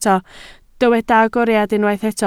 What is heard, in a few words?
"agoriad", Welsh